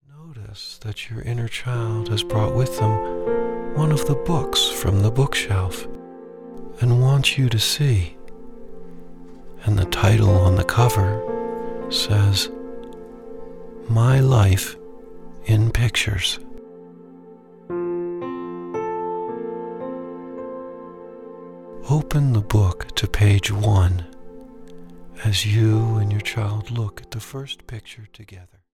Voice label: Inner Child Storybook